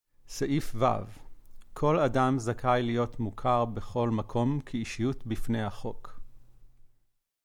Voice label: Hebrew